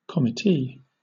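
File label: "committee", British English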